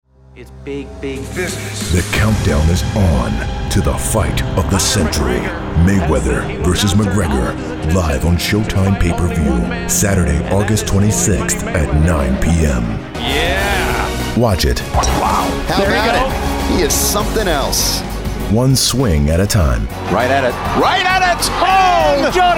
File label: promo male sports